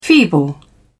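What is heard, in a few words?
"feeble", British English, dictionary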